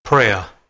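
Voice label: "preh2", Proto-Indo-European; eastern origins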